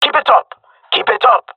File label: round winning, male; player vo; Counter Strike Model Pack; CS:GO